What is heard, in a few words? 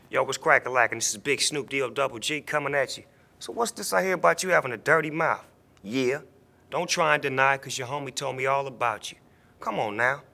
phone call; Snoop Dog